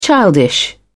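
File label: "childish", British English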